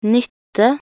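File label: "nytte", Norwegian Bokmål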